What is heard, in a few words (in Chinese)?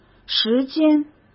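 "时间", Mandarin Chinese; chinese-flashcards; hsk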